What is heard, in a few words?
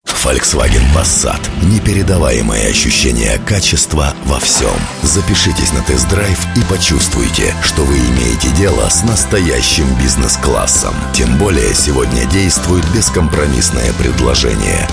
russian